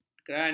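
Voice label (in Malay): "kerana", Malay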